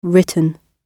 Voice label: written, gb